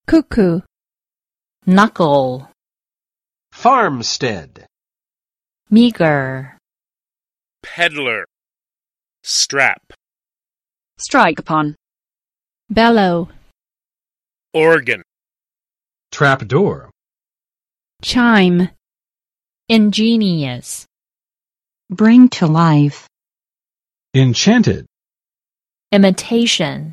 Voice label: cuckoo, Pronunciation; cuckoo for cuckoo clocks